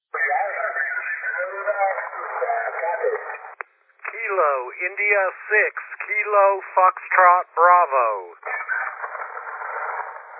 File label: 80 ssb